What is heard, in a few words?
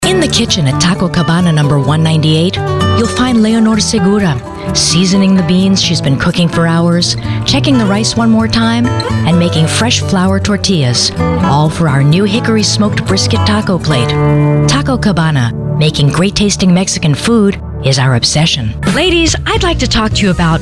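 Commercial, voiceovers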